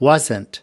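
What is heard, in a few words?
"wasn't", American English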